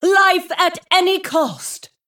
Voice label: Female Dragon Attack